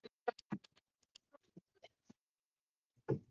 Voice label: voice message